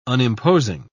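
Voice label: "unimposing", British English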